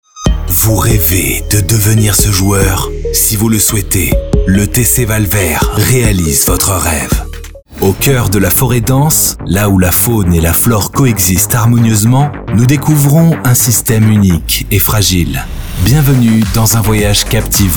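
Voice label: French, male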